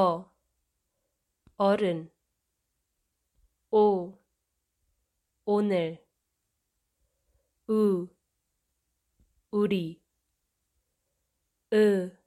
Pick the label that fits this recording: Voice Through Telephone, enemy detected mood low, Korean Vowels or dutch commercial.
Korean Vowels